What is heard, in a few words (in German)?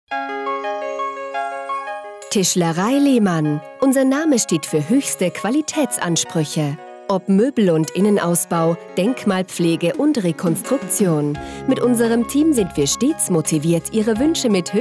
Telefonansagen; Tischlerei Lehmann